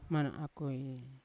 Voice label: "mana akoi", Yawa; unat